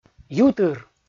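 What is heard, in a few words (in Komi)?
"ютыр", Komi, male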